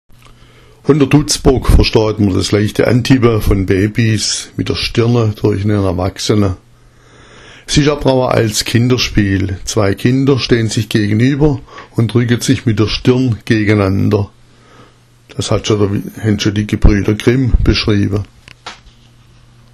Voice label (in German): dutzbock, schwaebisch; unter Dutzbock versteht man das leichte Antippen von Babys mit der Stirn durch einen Erwachsenen.